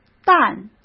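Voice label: "但", Mandarin Chinese; chinese-flashcards; hsk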